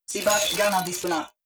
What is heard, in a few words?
robot human female greetings